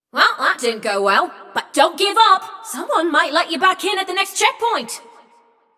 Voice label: Scrap Run Go To Checkpoint, Announcer; Flux